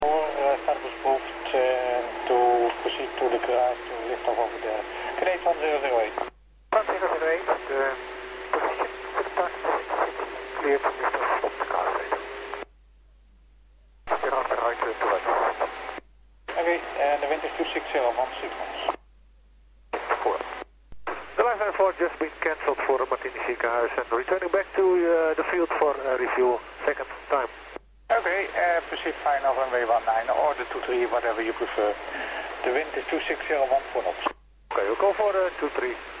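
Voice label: lifeliner scrambled to groningen city tower 118.700 MHz; ehgg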